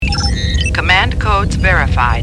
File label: command codes verified, computer voice